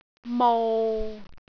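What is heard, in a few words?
"mou6", Cantonese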